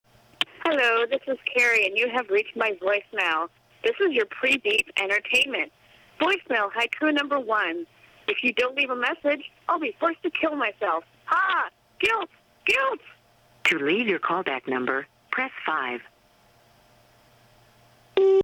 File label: voicemail